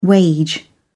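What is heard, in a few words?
"wage", British English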